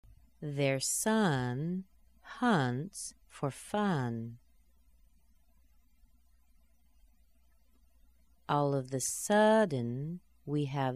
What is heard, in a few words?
UH sentences slow, American accent